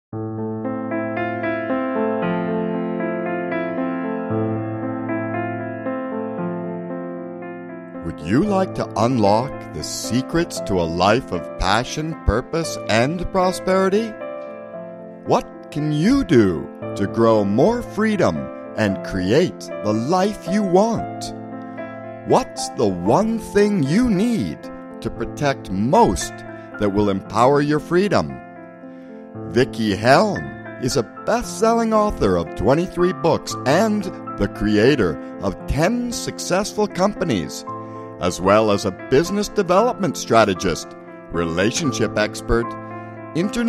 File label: commercial